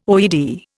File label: "OED", Indian